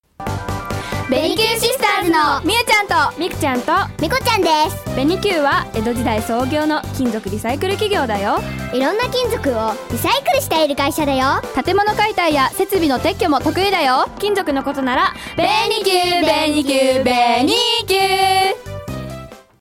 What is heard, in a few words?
radio CM